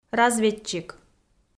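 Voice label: "разведчик", Komi, female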